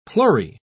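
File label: "pleurae", English, British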